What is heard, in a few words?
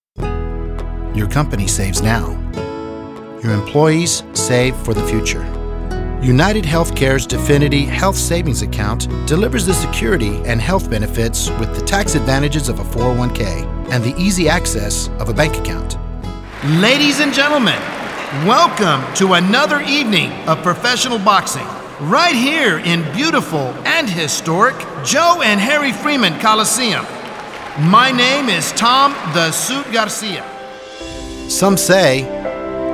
Eng, english males